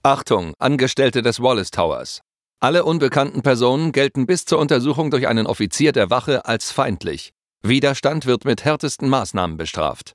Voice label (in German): Announcer Durchsage ernst; DE